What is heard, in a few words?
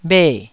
"bhe4", taiwanese